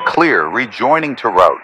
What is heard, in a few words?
Radio pilot Wingman Rejoin